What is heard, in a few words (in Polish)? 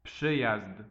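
"przyjazd", Polish; multimedia glossaries; transport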